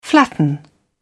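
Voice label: "flatten", British English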